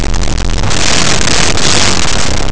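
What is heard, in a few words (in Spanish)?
"la bodega", Spanish, aproximants; laboratori fonetica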